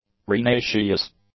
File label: "arenaceous", English; adjectives